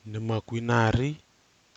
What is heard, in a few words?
"nɨmakwinari", Kwamera